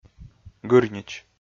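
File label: "гырнич", Komi, male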